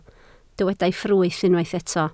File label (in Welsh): "ffrwyth", Welsh